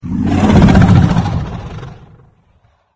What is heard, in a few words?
chatter; slender; guardian